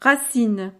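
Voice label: "racines", French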